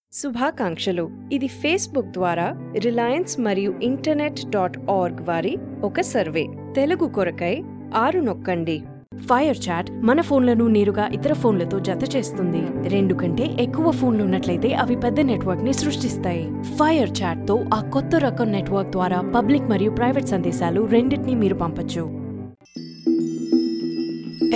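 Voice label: Telugu voice over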